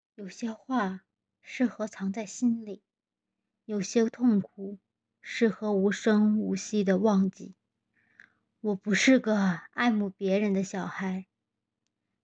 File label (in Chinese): 采访录音